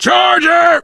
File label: charger, survivor voice biker; l4d1; Left 4 Dead 2